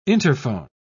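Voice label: "interphone", British English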